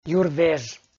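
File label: "юрвеж", Komi, male; kpv audio dict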